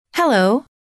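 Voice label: "hello", female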